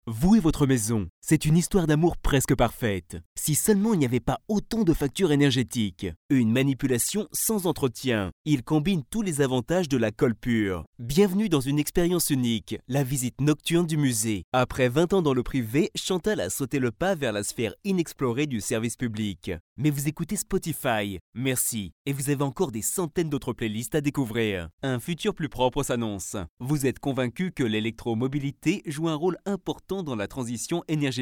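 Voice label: French, Corporate; GoLocalise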